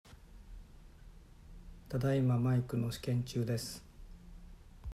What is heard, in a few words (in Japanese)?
iPhone8Plus内蔵